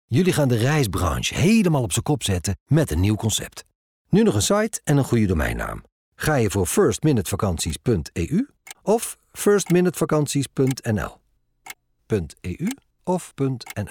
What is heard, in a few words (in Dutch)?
Radiocommercial; firstminute